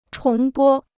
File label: "重播", Chinese